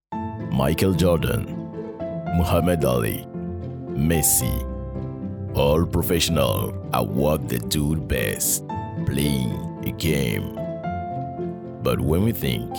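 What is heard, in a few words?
esports with music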